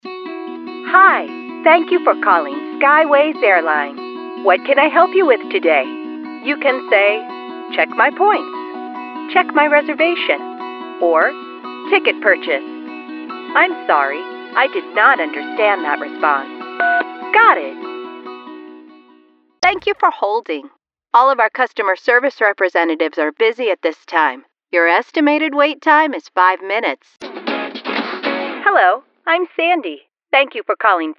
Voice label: IVR Telephony